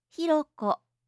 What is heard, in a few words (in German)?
"hirok", japanische